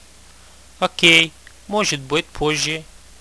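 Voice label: later, radio, male; sub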